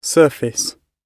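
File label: "surface", British English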